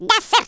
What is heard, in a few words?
yes sir, Speech, Russian